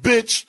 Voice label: "bitch", expletives